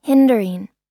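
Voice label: "hindering", American English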